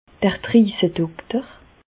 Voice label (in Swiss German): "driesedokter", Bas Rhin; medecine; endocrinologue